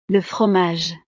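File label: "le fromage", French; desayuno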